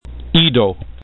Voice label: "ido", esperanto